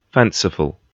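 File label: "fanciful", British English; pronunciations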